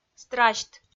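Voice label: "страсьт", Komi, female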